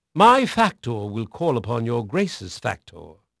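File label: English male; Pirates Of The Caribbean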